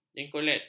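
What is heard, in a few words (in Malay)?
"jengkolet", Malay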